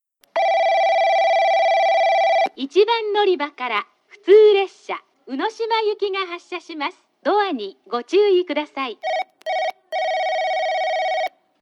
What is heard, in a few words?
tsuiki local unoshima; 小倉駅 発車放送（宇島行き）